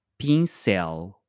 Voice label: "pincel", Portuguese